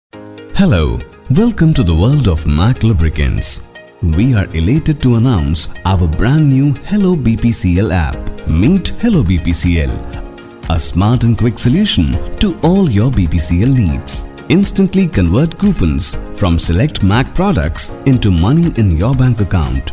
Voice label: English voice